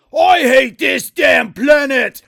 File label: Dwarf Enroute Pessimistic